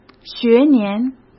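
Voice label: "学年", Mandarin Chinese; chinese-flashcards; hsk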